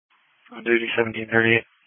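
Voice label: Police Patrol Dispatch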